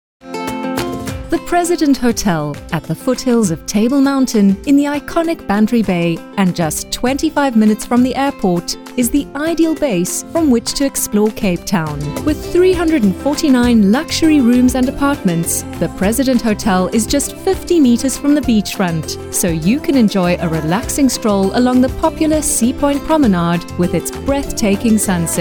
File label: english south african ivr